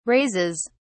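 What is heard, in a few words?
"raises", British English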